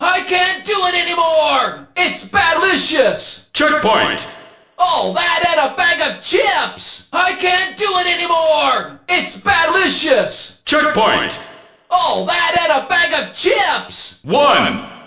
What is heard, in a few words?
Announcer; San Francisco Rush The Rock; arcade